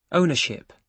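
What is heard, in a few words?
"ownership", British English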